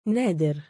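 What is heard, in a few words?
"naadir", Arabic, male; given name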